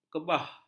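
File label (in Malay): "kebah", Malay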